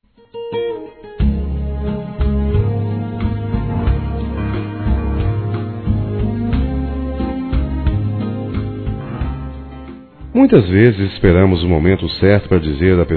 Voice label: Agradecimento geral m, masc; Telemensagens; Convites e Agradecimentos; Telemensagens 2017

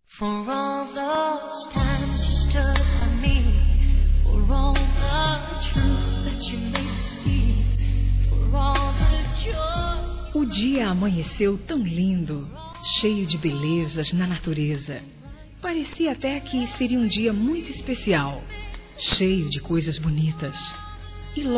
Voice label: ROMANTICA, Telemensagens Românticas fem

